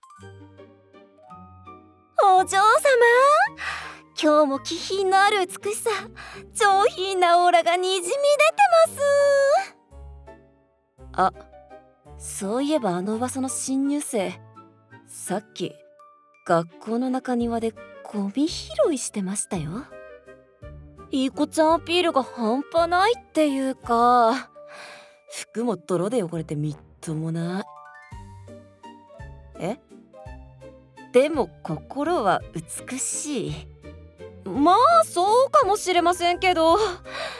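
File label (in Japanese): ボイスサンプル セリフ（BGM有）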